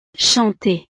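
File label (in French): "chanter", French; Cantar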